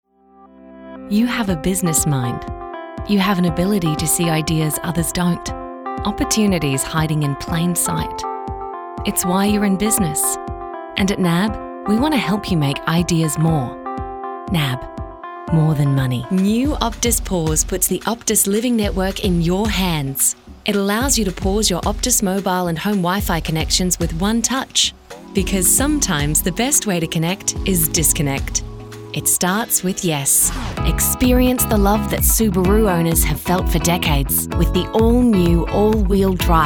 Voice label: French Commercial

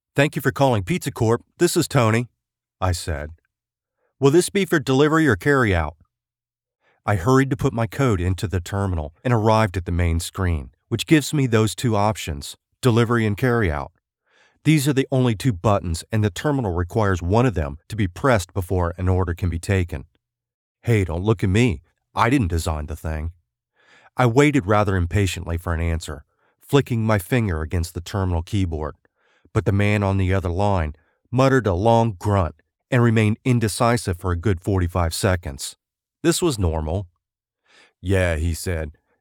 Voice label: Audiobook demo; As the Pizza Burns

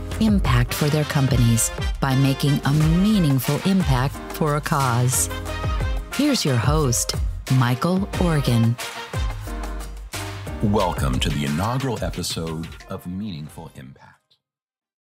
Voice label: Podcast Intro

